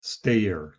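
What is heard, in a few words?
"stair", English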